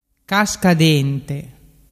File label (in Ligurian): "cascadente", dialettu